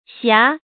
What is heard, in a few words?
"xia2", pinyin